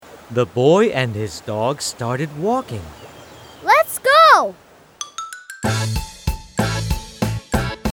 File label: Narration; The boy and his dog started walking